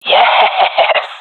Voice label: cheer; Counter Strike Model Pack; CS:GO; swat epic

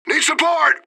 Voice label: radio bot under fire; fbihrt

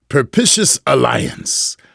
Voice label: "propitious", vortigaunt; Half Life 2; Episode 2

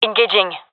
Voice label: attacking, fem; vo; gendermerie fem epic

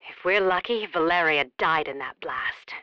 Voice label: second explosion, coop radio

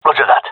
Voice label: affirmation, gendermerie male

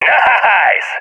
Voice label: cheer, seal epic; vo; Counter Strike Model Pack; CS:GO

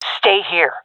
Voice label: request hold, vo fem; agents; Source Model Packs; Counter Strike Model Pack; CS2

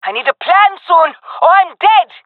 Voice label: pinned, jungle fem epic; player vo; Counter Strike Model Pack; CS:GO

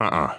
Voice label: negative, heavy; vo; Counter Strike Model Pack; CS:GO; phoenix coop

